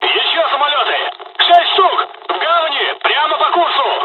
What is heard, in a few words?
dialog, dialogs russian; War Thunder